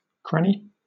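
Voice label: "cranny", British English; pronunciations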